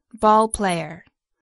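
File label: "ballplayer", English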